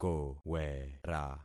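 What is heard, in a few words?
"kowera", concatenated syllables; Affixing experiment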